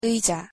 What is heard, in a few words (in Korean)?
"의자" Korean; pronunciation